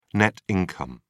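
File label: "net income", British English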